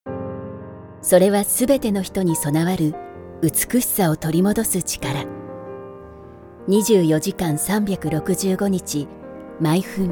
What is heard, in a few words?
japanese commercial